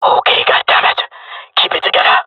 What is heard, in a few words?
scared, male; player vo; Counter Strike Model Pack; CS:GO